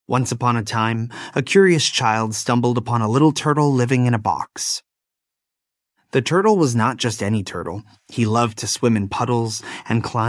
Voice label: male us; There Was a Little Turtle